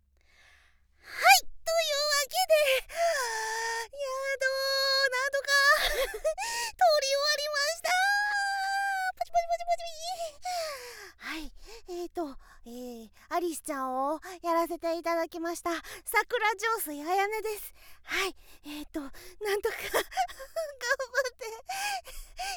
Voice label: 収録後・フリートーク

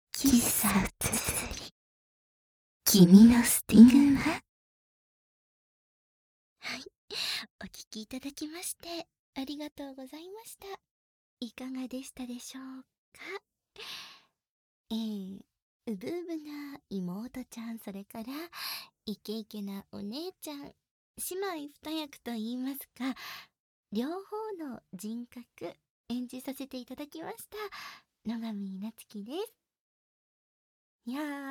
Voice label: フリートーク, ASMR

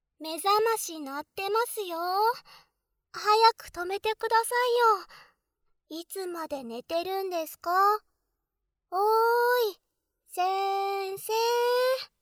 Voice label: 特典目覚まし音声

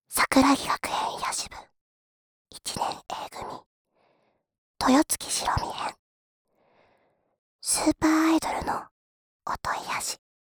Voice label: タイトルコール

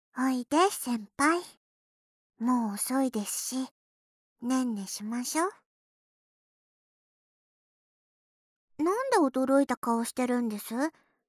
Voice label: 安眠添い寝; １年B組・猫村のこ編

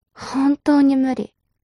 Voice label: mob女子台詞

